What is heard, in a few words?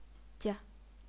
"jia0", chinese pinyin